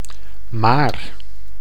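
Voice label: "maar", Dutch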